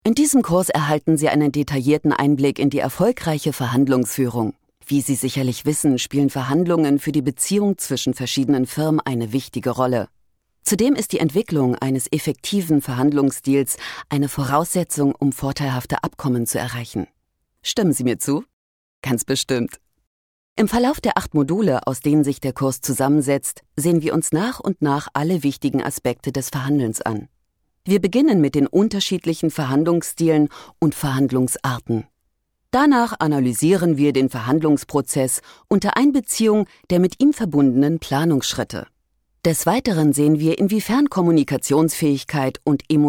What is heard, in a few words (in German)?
elearning, deutsch frauen